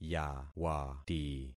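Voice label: "yawati", concatenated syllables; Affixing experiment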